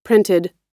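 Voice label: "printed", American English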